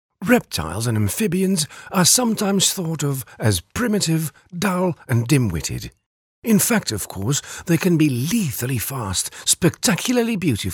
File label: dutch impersonations